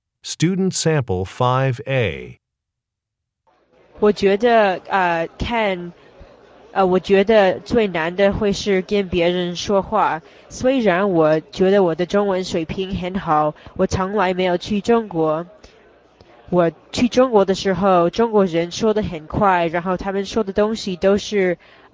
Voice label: chinese conversation

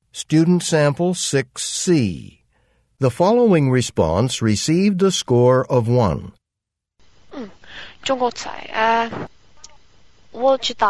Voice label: chinese convo; Conversation 6 Sample C (Score of 1)

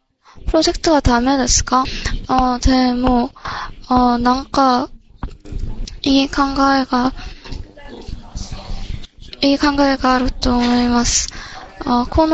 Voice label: Japanese Return Telephone Call; Return Telephone Call 3 Sample B Score 3

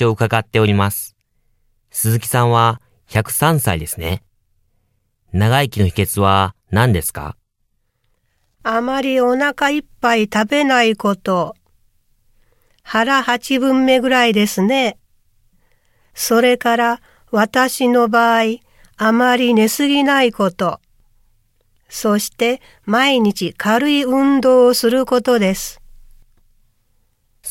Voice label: Radio Broadcast

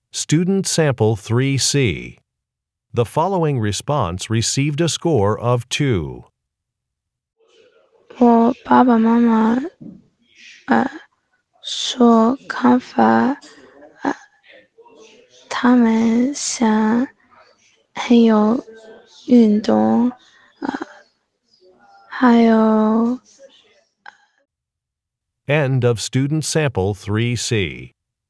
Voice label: chinese conversation

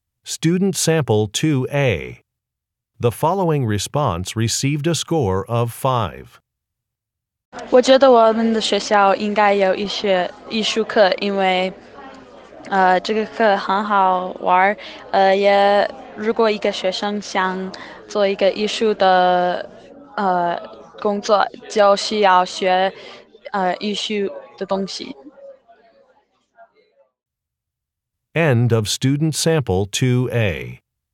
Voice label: chinese conversation; Conversation 2 Sample A

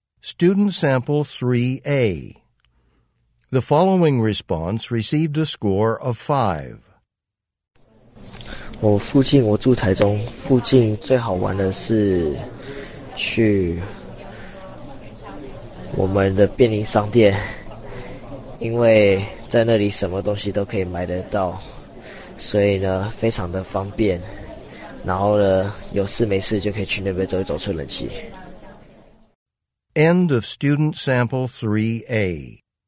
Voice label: Chinese convo

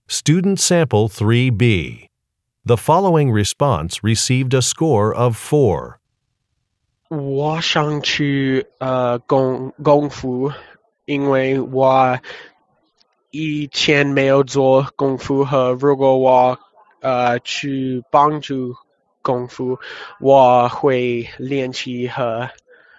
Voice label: chinese conversation

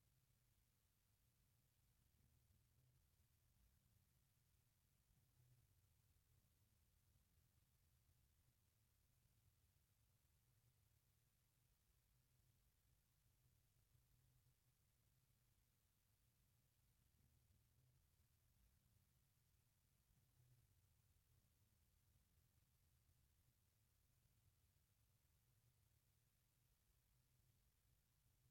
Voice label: spanish directions part b; AP Spanish Language 2017

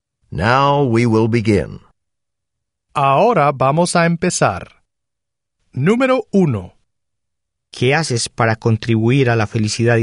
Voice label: spanish speaking

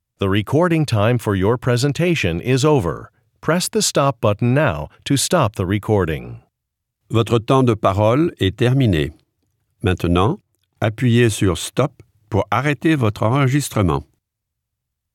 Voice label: french directions

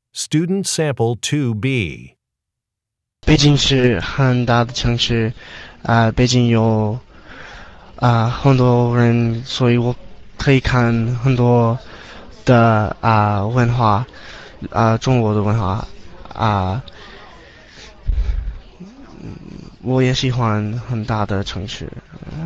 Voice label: chinese conversation

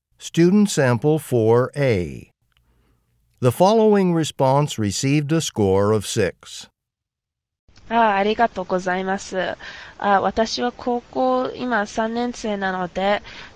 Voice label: japanese conversation; Conversation 4 Sample A (Score of 6)

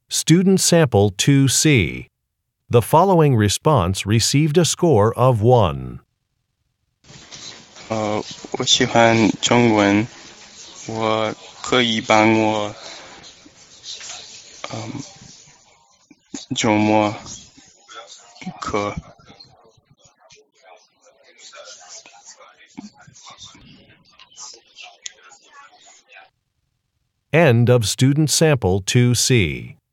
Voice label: chinese conversation

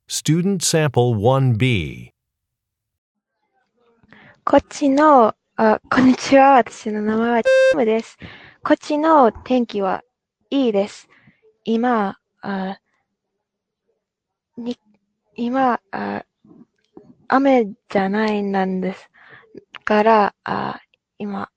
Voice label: japanese conversation sample

